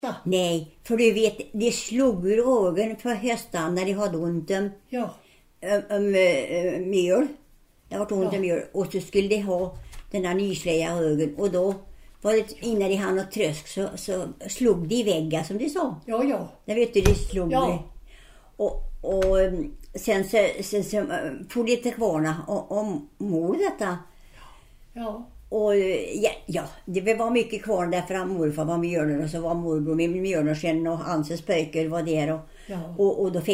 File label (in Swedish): dialekter